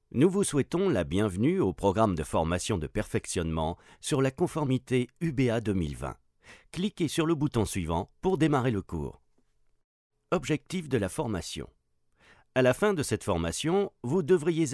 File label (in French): e-learning